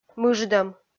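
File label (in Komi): "мыждӧм", Komi, female; kpv audio dict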